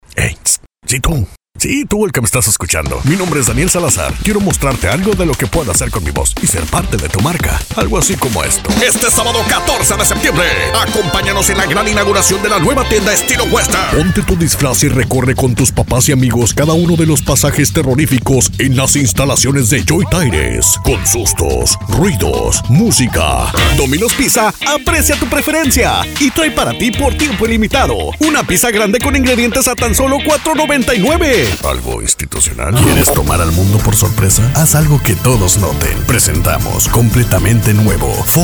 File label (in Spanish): Demo Spots